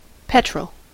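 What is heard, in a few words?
"petrol", US English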